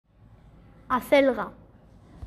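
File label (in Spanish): "acelga", Spanish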